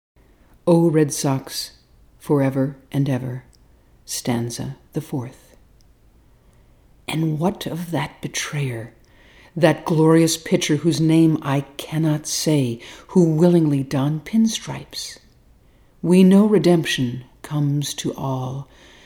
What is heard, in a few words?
Narration